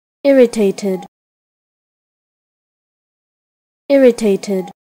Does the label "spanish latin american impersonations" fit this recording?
No